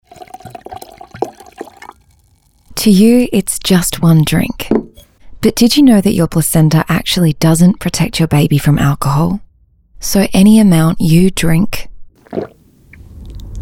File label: radio mix